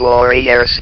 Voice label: "WARRIORS", votrax; VIDEO GAMES